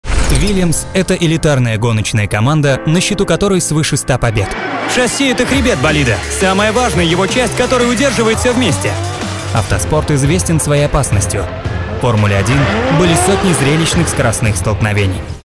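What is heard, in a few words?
Russian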